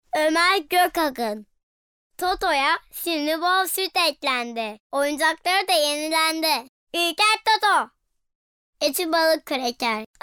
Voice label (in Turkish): Reklam